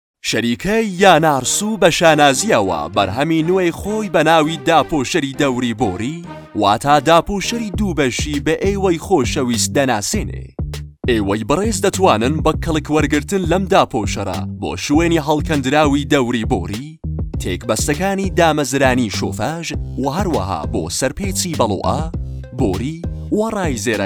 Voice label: arabic